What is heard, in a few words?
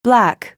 "black", roulette; Roulette Royal